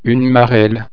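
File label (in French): "marelle", French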